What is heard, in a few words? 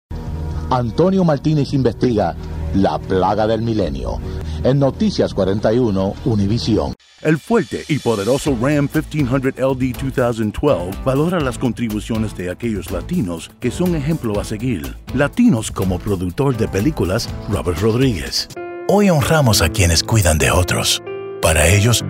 Spanish; Updated Spanish Demo